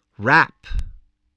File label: "rap", english